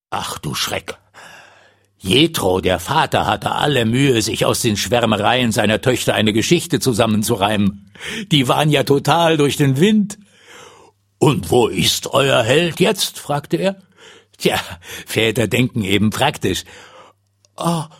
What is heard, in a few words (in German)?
erzaehler